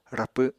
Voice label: "rapw", Apatani